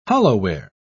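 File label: "hollowware", British English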